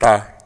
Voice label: "taa", Bambara

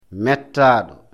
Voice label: "mettaado", lecture fl

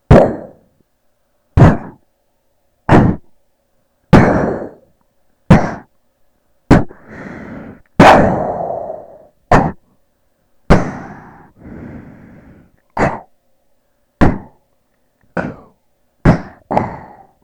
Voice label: voice booms

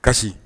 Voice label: "kasi", Bambara

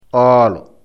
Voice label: "oolo", lecture fl

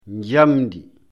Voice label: "njamndi", lecture fl

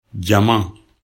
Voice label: "jama", lecture bm

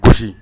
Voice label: "gɔsi", Bambara

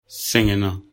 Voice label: "segenna", lecture bm

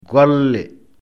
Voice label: "golle", lecture fl

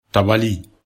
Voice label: "tabali", lecture bm

